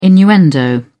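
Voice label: "innuendo", British English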